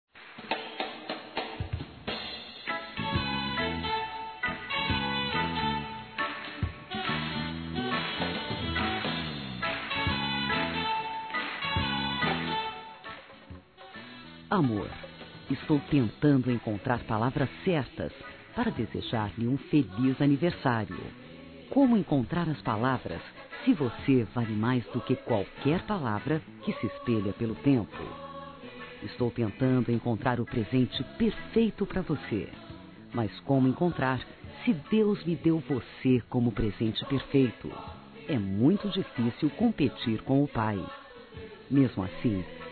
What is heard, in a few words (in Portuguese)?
Romantico Feminino, Telemensagens Aniversários; ANIV. Evangelico